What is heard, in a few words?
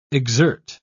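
"exert", English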